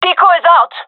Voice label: throwing decoy, gendarmerie fem; vo

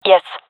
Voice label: affirmation, gendarmerie fem; vo; agents

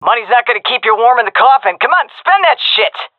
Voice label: request spend, fem; vo; agents; Source Model Packs; Counter Strike Model Pack; CS2

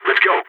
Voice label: radio lets go, balkan; vo

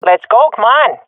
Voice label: round losing, swat fem; vo; agents; Source Model Packs; Counter Strike Model Pack; CS2

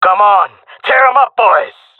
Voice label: round start, jungle male; player vo; Counter Strike Model Pack; CS:GO

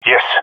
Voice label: affirmation, jungle male; agents; jungle male epic

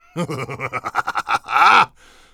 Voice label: killshots, male; Half Life 2; Episode 2

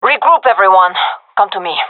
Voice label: request regroup, gendermerie fem; vo

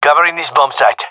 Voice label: guarding bombsite, gendarmerie male; vo

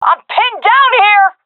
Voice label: pinned, fem; vo; agents; Source Model Packs; Counter Strike Model Pack; CS2

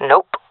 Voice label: negative, jungle fem; player vo jungle fem; Counter Strike Model Pack; CS:GO

